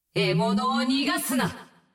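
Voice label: Japanese; 奥丽莎（守望先锋2）; 终极技能; 獲物を逃がすな！; 不要放过你的猎物！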